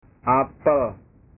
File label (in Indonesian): "apel", bahasa